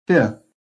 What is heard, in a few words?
"fifth", American